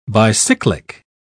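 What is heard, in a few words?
"bicyclic", British English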